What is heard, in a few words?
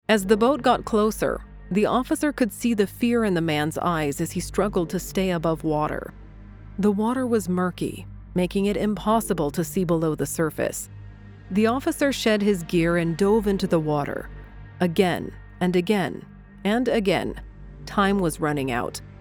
english canadian narration